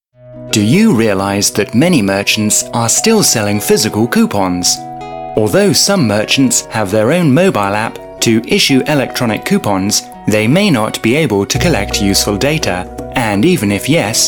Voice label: ENGLISH CASUAL